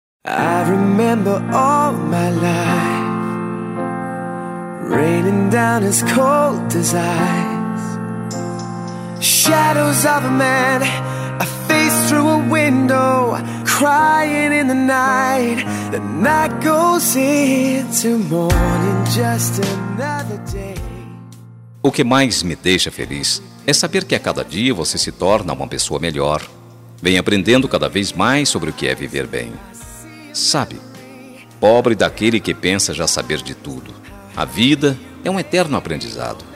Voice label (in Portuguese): ANIV NORA MASC, telemensagem